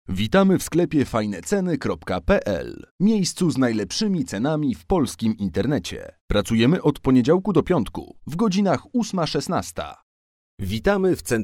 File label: male polish voice over talent upbeat